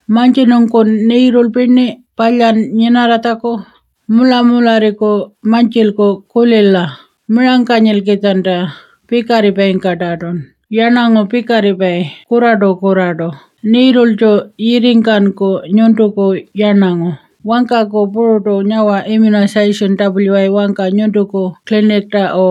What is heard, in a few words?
Immunisation Ngaanyatjarra, immunisation campaign; Campaign dont assume youre immune